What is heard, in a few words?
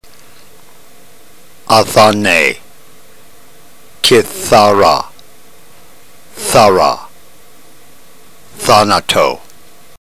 drill, ntgreek